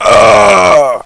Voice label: pain, radio, bot

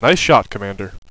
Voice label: "nice shot commander", radio bot; cstrike

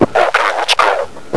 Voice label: lets go, zombie male

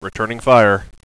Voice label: "returning fire", radio bot; cstrike

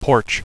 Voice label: "porch", radio bot; cstrike

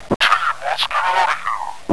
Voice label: get out, zombie male

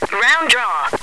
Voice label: round draw, woman

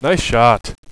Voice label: "nice shot", radio bot; cstrike

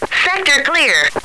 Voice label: clear, radio, woman

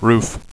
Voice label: roof, radio bot; cstrike

